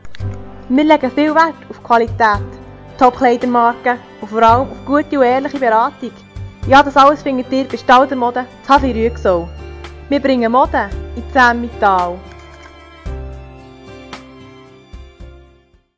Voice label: Werbespot; stalder mode

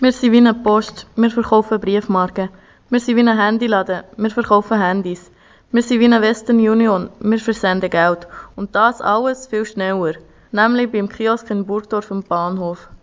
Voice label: Werbespot; Kiosk Burgdorf

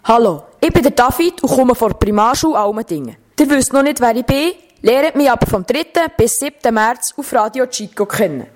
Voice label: Ankündigungsjingle

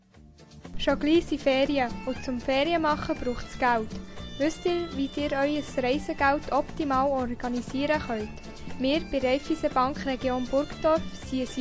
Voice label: Jingle; Raiffeisen

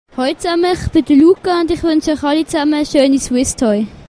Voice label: offenes mikrofon; Suisse Toy 2012

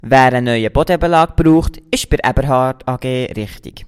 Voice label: Werbespot; Aeberhard AG; Muensingen

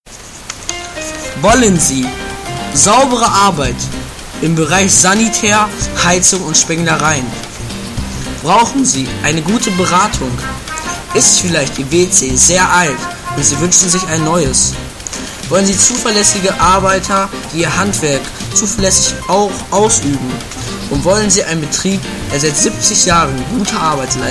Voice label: Werbespot Haustechnik; Werner Haustechnik; Marthalen

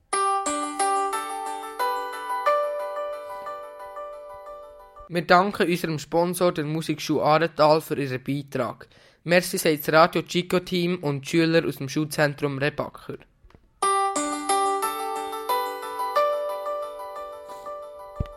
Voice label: Werbespot Musikschule; Muensingen

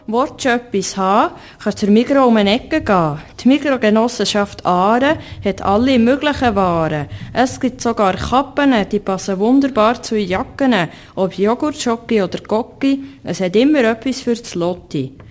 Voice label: Werbung Jingle; Migros Kulturprozent, Migros Aare Bern; Unterseen

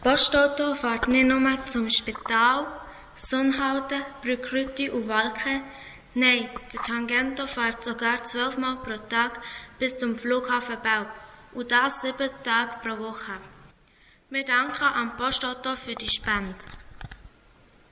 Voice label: Werbespot Postauto; Muensingen

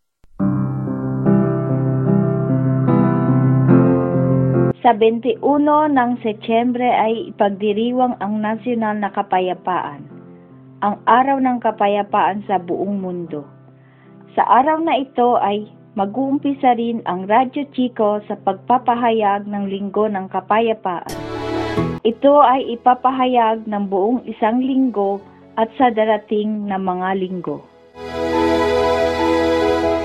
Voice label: Jingle tagalog; Jingle Weltfriedenswoche Tagalog

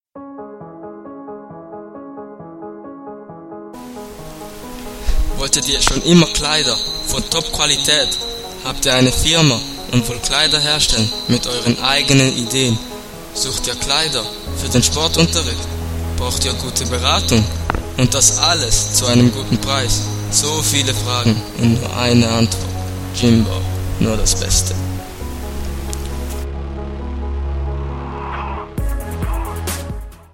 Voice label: Werbespot; Marthalen

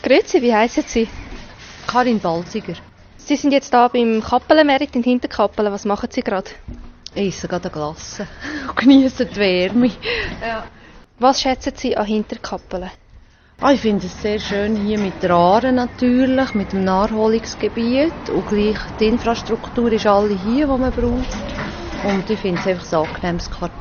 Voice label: Strassenumfrage; Hinterkappelen

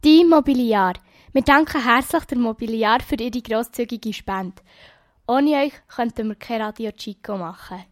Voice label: Werbespot; Die Mobiliar; Muensingen

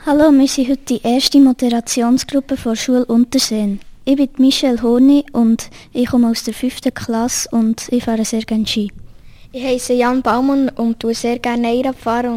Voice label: Vorstellungsrunde Moderation; Unterseen

